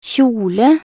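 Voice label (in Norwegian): "kjole", Norwegian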